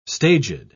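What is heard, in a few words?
"staged", British English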